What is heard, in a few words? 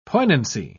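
"poignancy", British English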